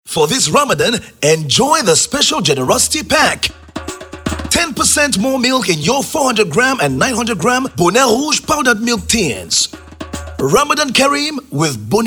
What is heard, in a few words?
spot radio promo